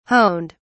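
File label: "honed", British English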